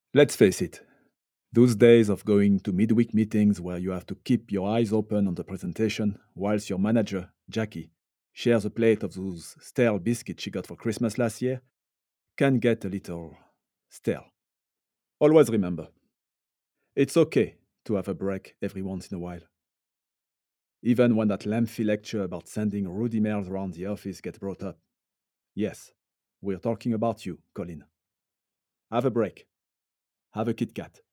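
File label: French Commercial; GoLocalise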